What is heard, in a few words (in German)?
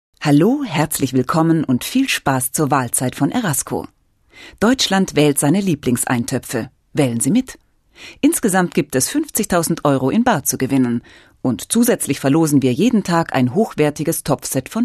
Werbung; Erasco